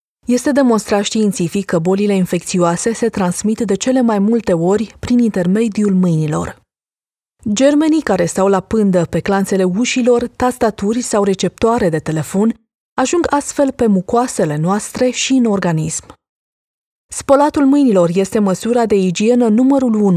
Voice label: romanian promos